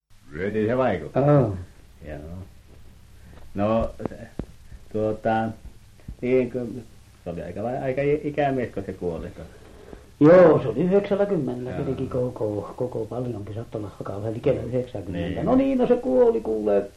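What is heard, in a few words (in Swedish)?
Övertorneå Norrbotten, dialekter; Folkminnen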